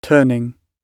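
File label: "turning", British English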